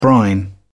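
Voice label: "brine", British English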